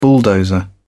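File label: "bulldozer", British English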